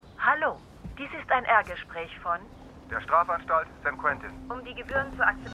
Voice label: Telefonstimmen; Truth Be Told

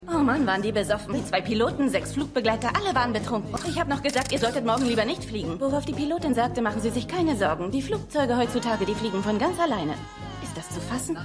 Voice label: Barfrau, Stimmproben

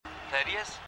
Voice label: Telefonstimme; Keine Gnade Fuer Dad

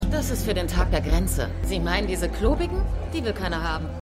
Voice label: Barfrau; Star Trek Picard

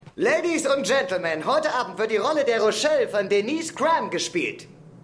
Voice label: Ansager, Stimmproben; Seinfeld

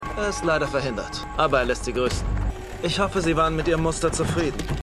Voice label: Mann; Midas; Arrow

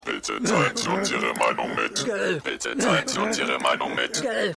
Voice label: Tiefe Stimme; Stimmproben; Und Jetzt Die Muppets

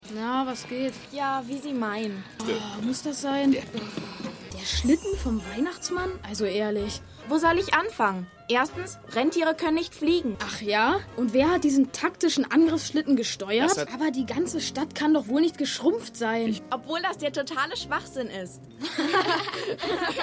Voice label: Junge Maedchen; Stimmproben; Eureka

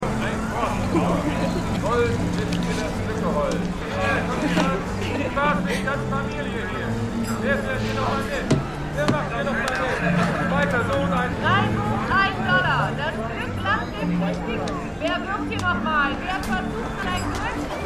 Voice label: Jahrmarktstimmen; The Wire

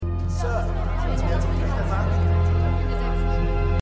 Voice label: Reporter Menge

